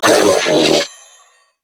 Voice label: Death Grineer Female; en; New War; Narmer Grineer